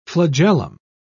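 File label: "flagellum", British English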